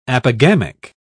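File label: "apogamic", British English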